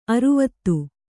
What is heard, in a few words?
"ಅರ್ವತಿ", Kannada